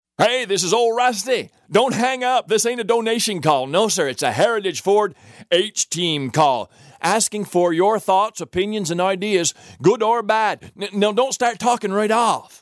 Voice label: Robo PHONE SERVICE